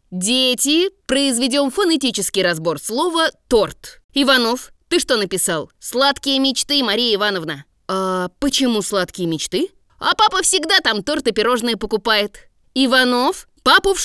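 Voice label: teacher, voice casting; актерских голосов для озвучки видео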